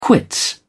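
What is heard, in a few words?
"quits", English, uk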